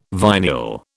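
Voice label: "vinyl", British English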